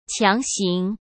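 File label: "强行", Mandarin Chinese; chinese-flashcards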